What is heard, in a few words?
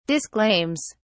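"disclaims", English, British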